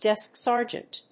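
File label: "desk sergeant", English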